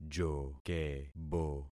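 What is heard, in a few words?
"jokebo", concatenated syllables; Affixing experiment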